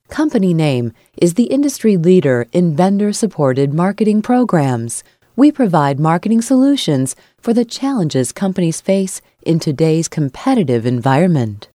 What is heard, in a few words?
corporate and professional